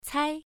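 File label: "cai" Mandarin, first tone, pronunciation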